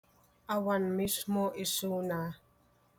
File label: Ilocano